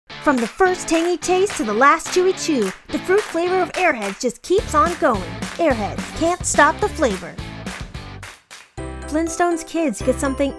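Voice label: Commercial, Voiceover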